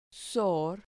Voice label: "sor", Spanish syllable